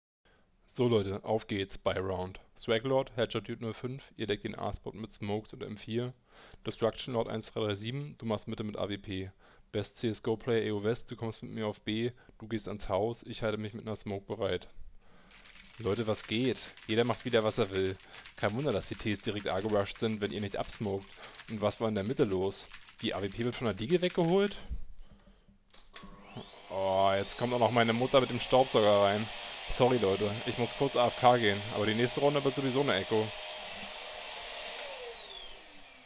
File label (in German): Testaufnahme Corsair HS60 Haptic USB